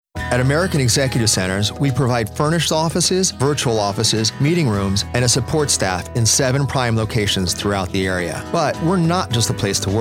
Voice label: ad radio; American Exec